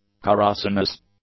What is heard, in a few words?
"caracinous", English; adjectives